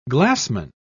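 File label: "glassman", British English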